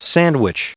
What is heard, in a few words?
"sandwich", en-us